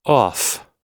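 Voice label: "off", American English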